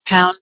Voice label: Key Pound EN, Keytones; Just5 J509